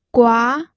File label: "gua2", pinyin audio